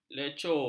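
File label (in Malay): "lecur", Malay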